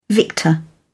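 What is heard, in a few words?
"victor", British English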